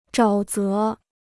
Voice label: "沼泽", Chinese

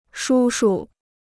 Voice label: "叔叔", Chinese

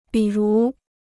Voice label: "比如", Chinese

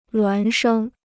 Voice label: "孪生", Chinese

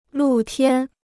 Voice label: "露天", Chinese

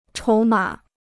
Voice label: "筹码", Chinese

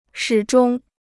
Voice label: "始终", Chinese

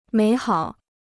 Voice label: "美好", Chinese

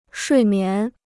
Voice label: "睡眠", Chinese

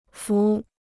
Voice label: "幅", Chinese

